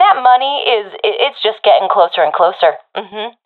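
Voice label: round winning, professional female; vo; agents; Source Model Packs; Counter Strike Model Pack; CS2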